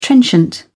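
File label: "trenchant", British English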